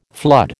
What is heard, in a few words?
"flood", American